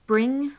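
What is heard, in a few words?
"bring", British English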